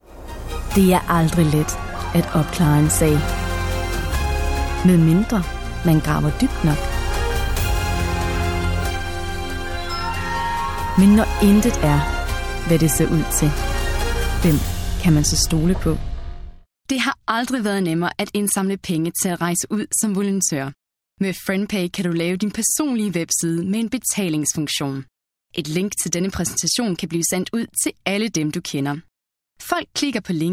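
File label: Dan, Danish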